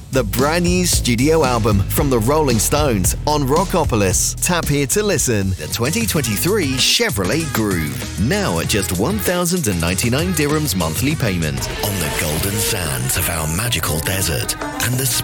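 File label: Commercial voice over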